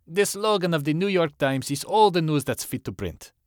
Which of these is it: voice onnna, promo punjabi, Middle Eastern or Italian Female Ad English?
Middle Eastern